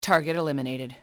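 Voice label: target eliminate, english female; XBOX; enemy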